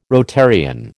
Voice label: "Rotarian", American

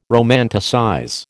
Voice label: "romanticize", American

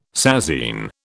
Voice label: "sasine", British

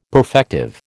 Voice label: "perfective", American

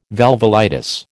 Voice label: "valvulitis", American

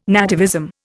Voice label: "nativism", Indian

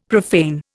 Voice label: "profane", Indian; Dictionary

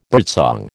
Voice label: "birdsong", American

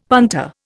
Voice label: "punter", Indian; Dictionary

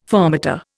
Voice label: "fermata", Indian

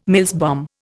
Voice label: "Mills bomb", Indian

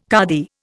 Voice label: "kadi", Indian

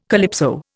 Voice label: "calypso", Indian

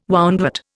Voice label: "woundwort", Indian

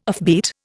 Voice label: "offbeat", Indian

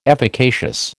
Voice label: "efficacious", American

